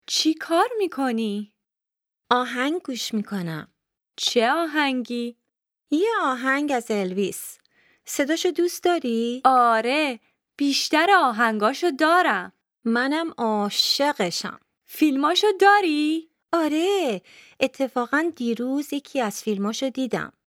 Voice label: Dialogue lesson Farsi